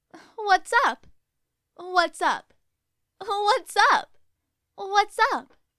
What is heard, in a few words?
What's Up Curious